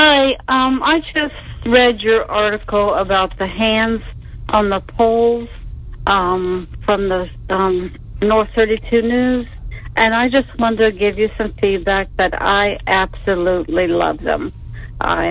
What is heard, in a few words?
voicemail